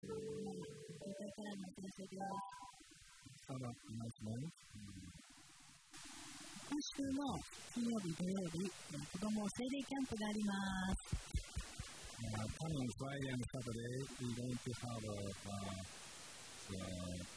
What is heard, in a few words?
announcement; sunday message 2023; Sapporo Christian Message